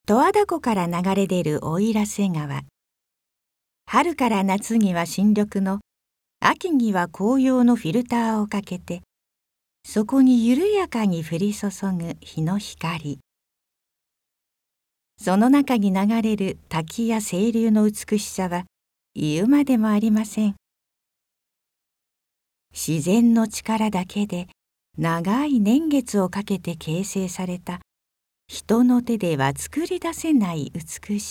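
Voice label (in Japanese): ナレ, ボイスサンプル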